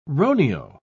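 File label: "roneo", English, British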